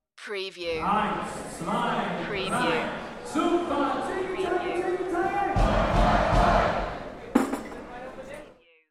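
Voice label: German Toast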